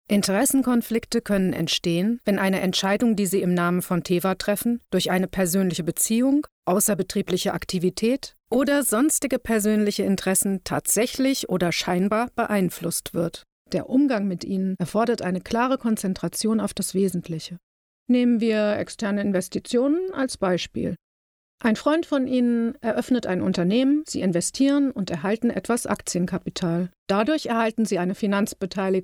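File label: German, female; voice over talent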